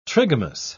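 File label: "trigamous", British English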